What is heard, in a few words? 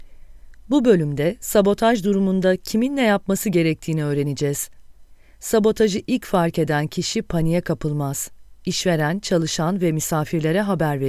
Turkish F Corporate; voice-over